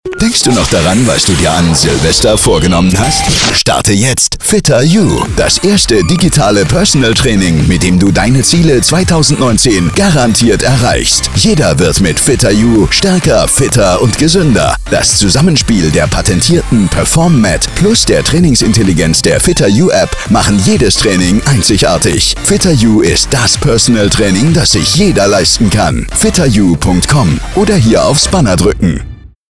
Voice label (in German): Webradio Cut; FitterYOU